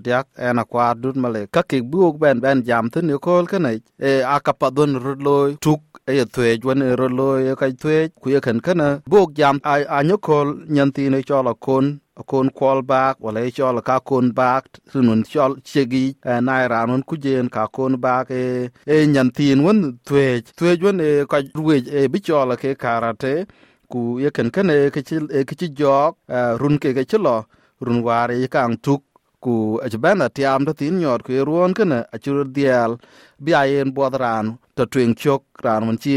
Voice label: dinka; SBS Dinka